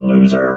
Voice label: "loser", robot